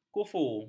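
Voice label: "kufu", Malay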